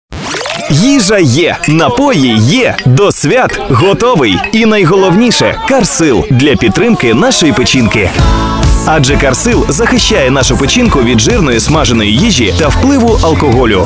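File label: Ukrainian, male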